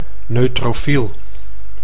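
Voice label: "neutrofiel", Dutch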